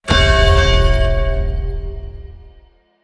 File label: Announcer Halloween; combat master linux macos windows gamerip 2023